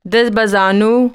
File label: "Hands and knees", Dari